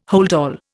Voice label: "holdall", Indian

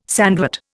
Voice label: "sandwort", Indian

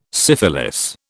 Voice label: "syphilis", British

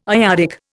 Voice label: "iodic", Indian

